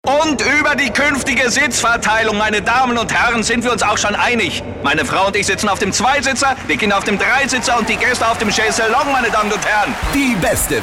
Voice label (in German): Dynamisch